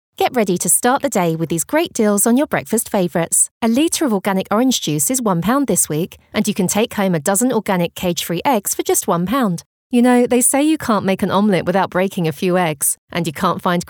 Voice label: Casual Conversational